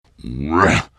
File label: lion anger